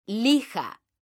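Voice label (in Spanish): "LIJA", female; mekanta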